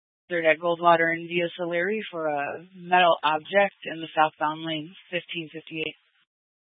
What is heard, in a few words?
Scottsdale Police, Simulcast